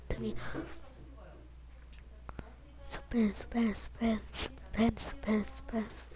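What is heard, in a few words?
"pencil", spoken word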